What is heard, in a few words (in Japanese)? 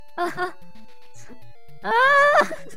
ダメージボイス; 悪魔城ドラキュラ(FC版)